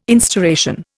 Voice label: "instauration", Indian

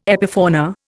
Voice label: "epifauna", Indian

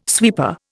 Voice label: "sweeper", Indian

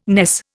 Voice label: "ness", Indian

